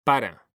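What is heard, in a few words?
"para", Spanish, male; mekanta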